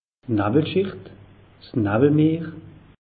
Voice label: "nawwelschicht", alsace; meteo

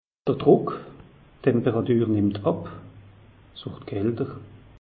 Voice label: "druck", alsace; meteo

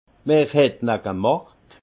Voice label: "wer het na gemacht", alsace; vin; vinifié par qui

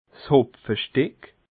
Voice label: "hopfestick", alsace

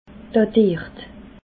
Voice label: "datiert", alsace

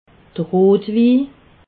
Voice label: "rotwi", alsace

